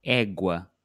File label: "égua", Portuguese